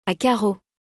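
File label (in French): "A carreaux", French; vocabulaire; le lexique de l'habillement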